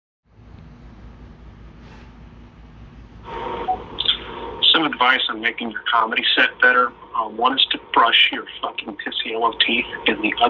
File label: comedy advice